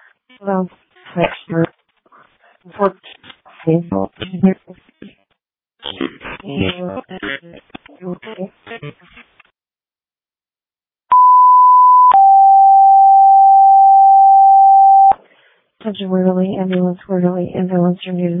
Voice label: Janesville First Responders, dispatch

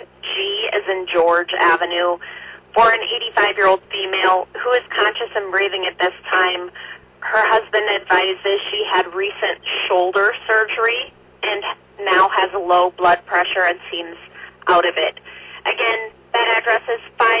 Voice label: Arlington Fire, dispatch

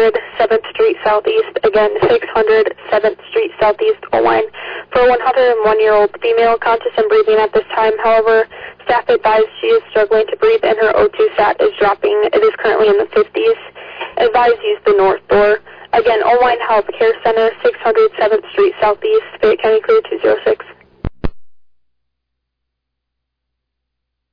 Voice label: Oelwein Ambulance, dispatch

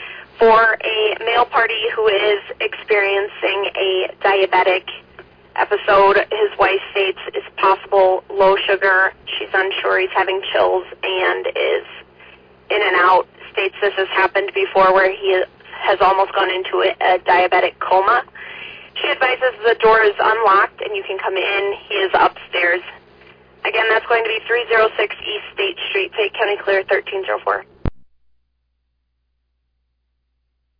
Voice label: Ambulance, dispatch

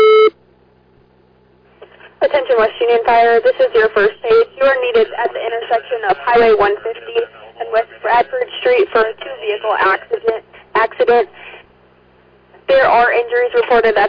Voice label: West Union Fire, dispatch

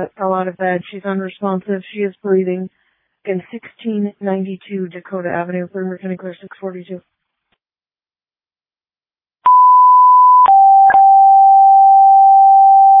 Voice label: Waverly Ambulance, dispatch

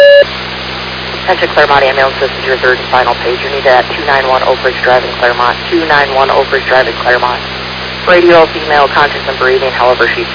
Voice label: Fire, dispatch; Clermont

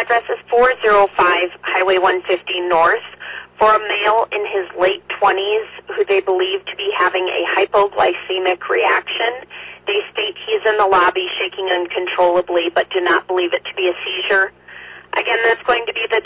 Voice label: Ambulance, dispatch

